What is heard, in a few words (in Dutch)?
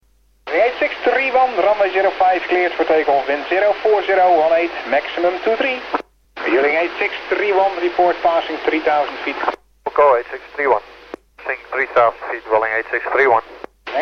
tower praat gedeelteijk spaans; veuling en eelde tower; ehgg twr